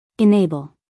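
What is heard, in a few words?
"enable", American English, female; 1000 hours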